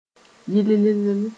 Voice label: "yɩlll", Mooré; very clean

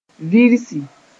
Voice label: "virsi", moore

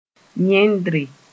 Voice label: "yẽndre", moore

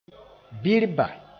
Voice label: "bɩrba", moore

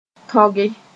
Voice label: "tõge", Mooré

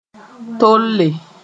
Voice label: "tolle", Moore

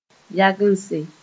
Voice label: "yagense", Moore

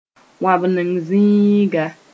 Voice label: "wãbneng zĩiga", Mooré; pasture

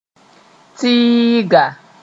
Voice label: "tɩɩga", moore

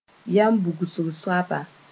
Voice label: "yam bʋgsg soaba", Moore

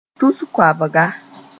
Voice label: "tus-koabga", moore

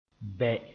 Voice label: "bɛ", Mooré; plug leaks

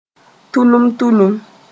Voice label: "tulum-tulum", moore

